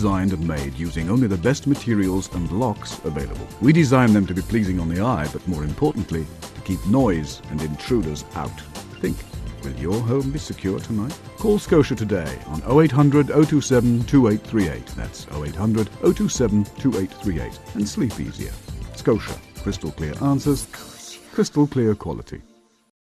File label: Commercial Double Glazing